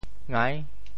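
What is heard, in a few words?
"ngai6", Teochew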